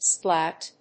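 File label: "splat", English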